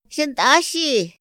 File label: "xa nt´axi", Otomí; Días de la semana; INPI; INALI